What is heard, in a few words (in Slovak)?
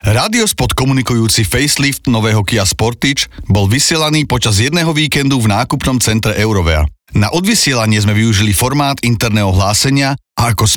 hlasenie; kia